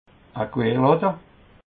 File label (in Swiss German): "querlatte", alsace